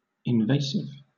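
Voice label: "invasive", British English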